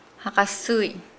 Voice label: "urinate", Nukuoro